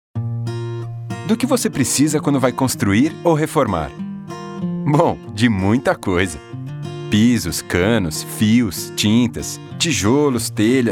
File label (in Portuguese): JOVEM DESCONTRAIDA; TELHA NORTE